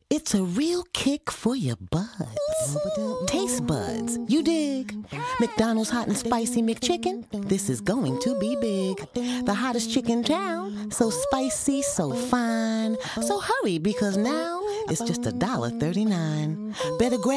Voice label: Voice Over; McDonalds